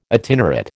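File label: "itinerate", American English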